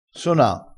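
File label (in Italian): "sona", dialetto crespinese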